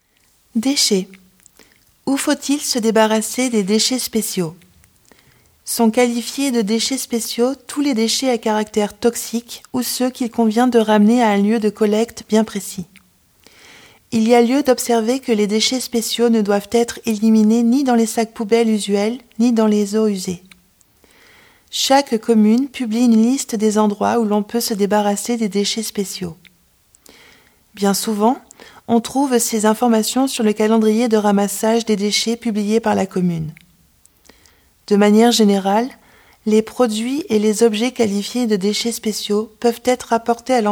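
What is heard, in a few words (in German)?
abfall französisch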